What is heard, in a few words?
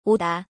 korean come